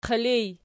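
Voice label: "qilij" nominative, Archi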